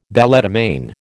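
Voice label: "balletomane", American English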